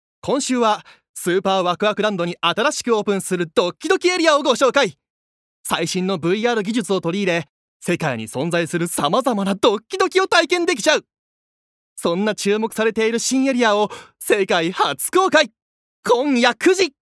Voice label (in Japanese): ナレーション